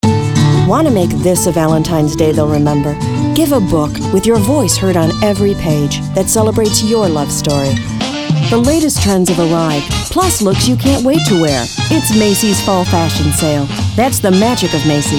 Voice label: Commercial, vo